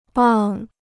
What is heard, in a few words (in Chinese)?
"棒", Chinese